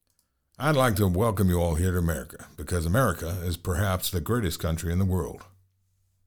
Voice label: standard US